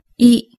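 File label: "i", Japanese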